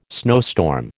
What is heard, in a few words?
"snowstorm", American